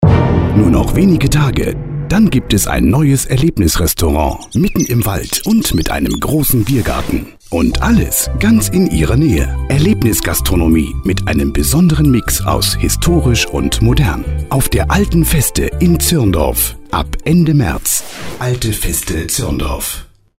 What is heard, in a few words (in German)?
radiospot